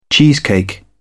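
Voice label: "cheesecake", British English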